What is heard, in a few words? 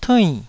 "tuinn4", taiwanese Syllables